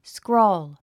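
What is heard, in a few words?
"scrawl", English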